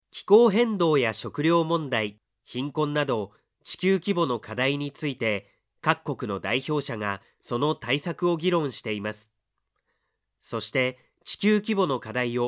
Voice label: Japanese voiceover, locutor japones